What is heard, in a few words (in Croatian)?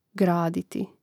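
"graditi", Hrvatski; mreznik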